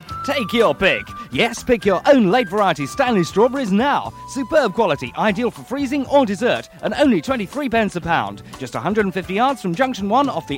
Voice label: Radio Hallam Advert - Strawberries; Stanley